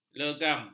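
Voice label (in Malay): "legam", Malay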